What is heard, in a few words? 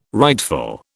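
"rightful", British English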